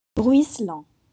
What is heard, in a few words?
"ruisselant", French